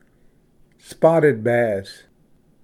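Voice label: "spotted bass", English; ᎤᏃᎦ ᎤᏅᏥᏓ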